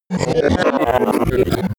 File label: glitch talk fx